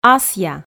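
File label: "асъя", Komi, female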